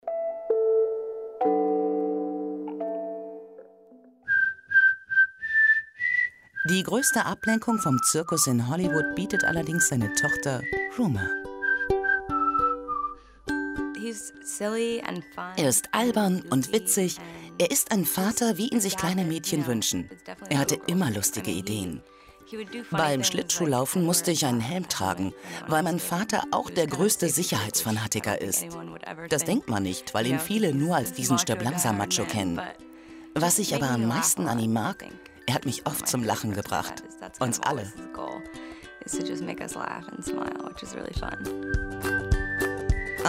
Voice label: Doku off voiceover; bruce willis